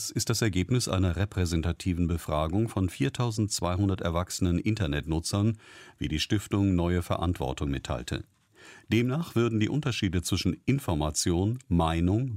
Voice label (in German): Nachrichten; Medienkompetenz; Dlf